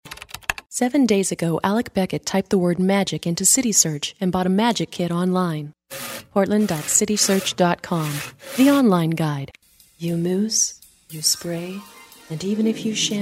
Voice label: Female Commercial